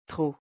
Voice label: "trop" French; pronunciation